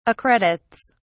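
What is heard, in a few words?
"accredits", British English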